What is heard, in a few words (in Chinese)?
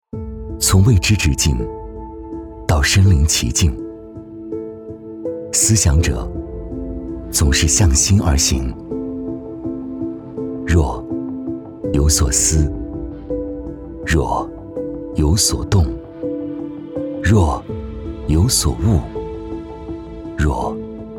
男 企业 浴室柜 沉稳 品质